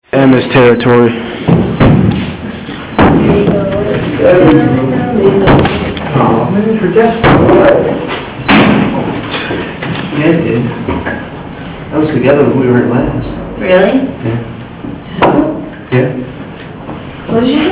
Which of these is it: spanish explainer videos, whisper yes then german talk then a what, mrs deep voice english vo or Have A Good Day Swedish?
whisper yes then german talk then a what